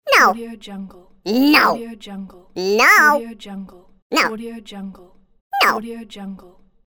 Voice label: cartoon voice no

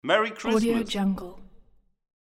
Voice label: male voice saying merry christmas

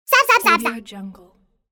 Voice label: cartoon girl jibber jabber; applehillstudios

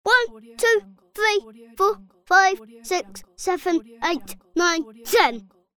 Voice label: child saying numbers one to ten

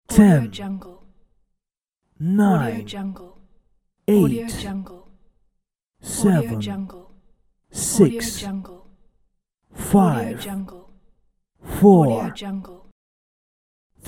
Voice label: male countdown from 10 to 1

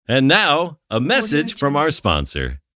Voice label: announcer and now a message from our sponsor

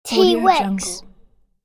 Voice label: "t rex", boy

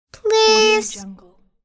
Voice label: female child saying please

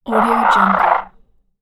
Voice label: alien voice

